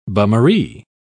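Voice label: "bummaree", British English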